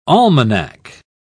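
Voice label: "almanack", British English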